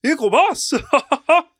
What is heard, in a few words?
Impressed